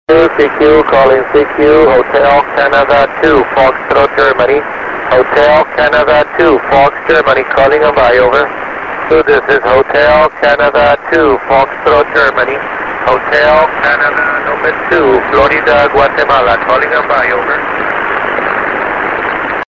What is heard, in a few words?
6m ssb